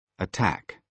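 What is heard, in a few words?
"attack", British English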